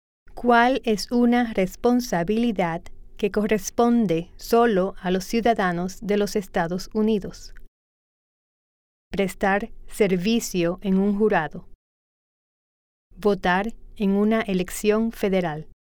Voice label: Spanish